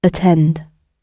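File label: "attend" British English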